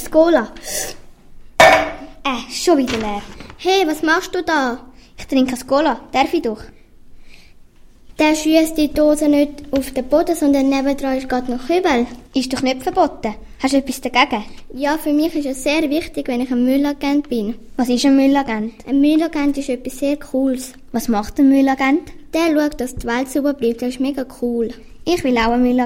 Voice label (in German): Hörspiel; Müllagenten; ITSMove Winterthur